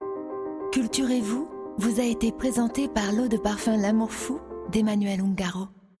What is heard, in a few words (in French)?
Parfum TV Classe chic; Ungaro